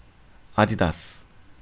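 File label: Korean, male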